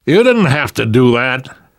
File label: thanks, namvet, survivor voice; Left 4 Dead 2; left4dead2 dlc3